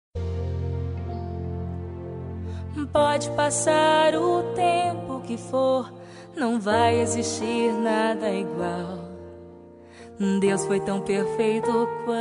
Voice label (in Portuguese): filha fem, Dia das Mães para Filha – Voz Feminina